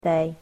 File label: "they", English